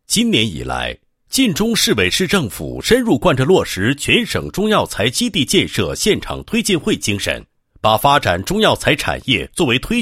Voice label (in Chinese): 男, 政府; 晋中中药材产业建设